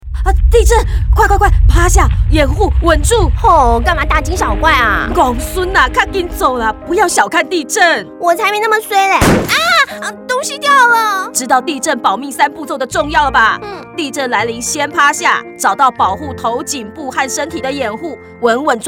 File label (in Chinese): 防震宣導祖孫篇 國語; 內政部